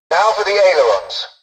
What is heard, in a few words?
tutorial dialogue, english; War Thunder